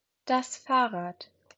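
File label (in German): "Fahrrad", German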